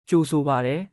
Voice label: welcome burmese; ကြိုဆိုပါတယ်; kyaosopartaal